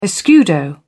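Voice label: "escudo", British English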